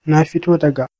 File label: im from, hausa